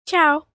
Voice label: Italian Hi Bye, Greetings, 1 child, 9 yrs., Italian; Talking Sound Effects